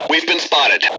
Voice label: detected, M Voice English